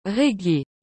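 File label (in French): "reggae", French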